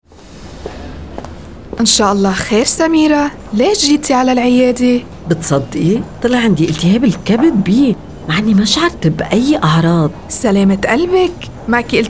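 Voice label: Dialogue, arabic; HepB; PSA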